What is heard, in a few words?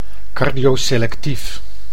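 "cardioselectief", Dutch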